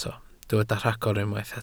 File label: "rhagor", Welsh